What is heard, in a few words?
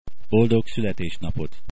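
happy birthday hungarian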